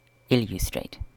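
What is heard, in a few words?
"illustrate", British English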